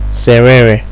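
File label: "senrere", Nigerian Pidgin, male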